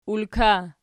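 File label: "ulka" nominative, Archi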